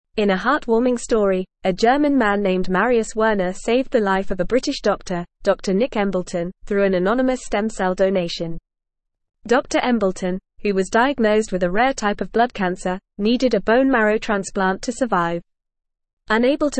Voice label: English Newsroom Advanced FAST Reading; Young German Man Saves British Doctors Life

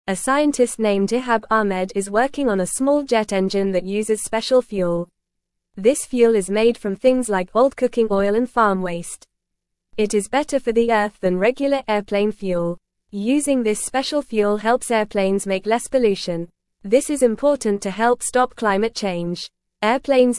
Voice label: English Beginner FAST Reading; Making Planes Greener with Special Earth Friendly Fuel

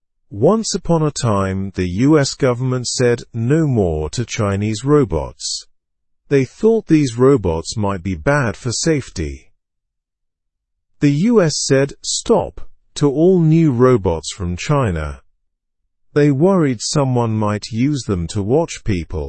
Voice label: english news beginner slow; us blocks new chinese robots

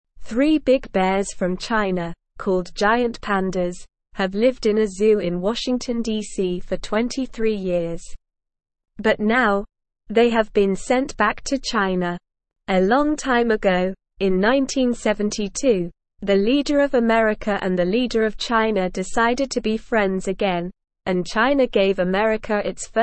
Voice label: English Lower Intermediate SLOW Reading; Pandas Going Back to China from the Zoo; English Newsroom

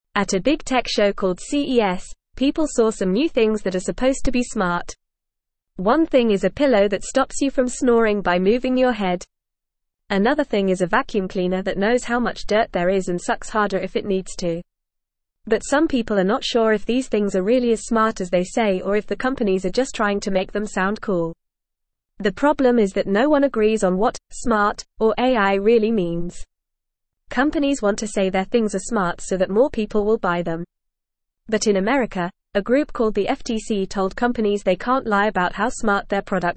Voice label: English Lower Intermediate FAST Reading; New Inventions at Big Show Are They Really Smart; English Newsroom

